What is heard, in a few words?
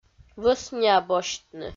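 "вӧсниа босьтны", Komi, female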